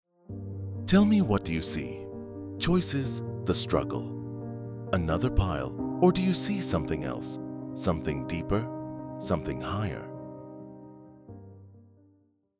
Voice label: TVC English